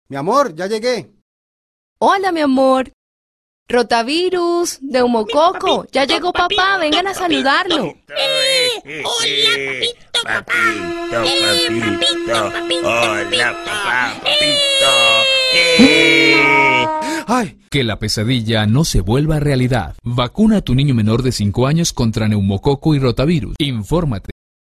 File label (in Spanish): comercial